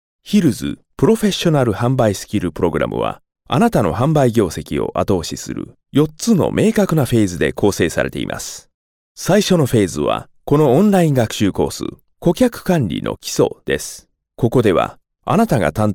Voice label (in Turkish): Japonca Erkek; seslendirme